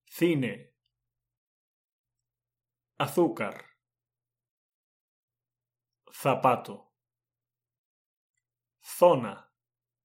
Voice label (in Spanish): Sonidos c y z; Español para principiantes 3; Educación